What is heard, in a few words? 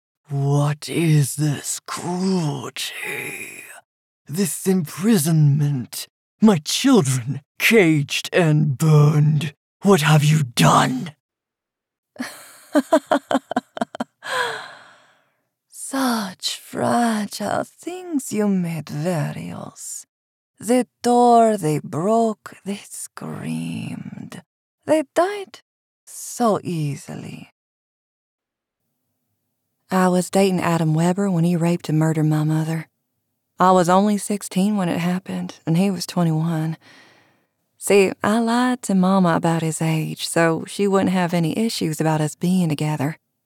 Character; Female; English (North American)